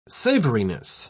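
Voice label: "savoriness", English, British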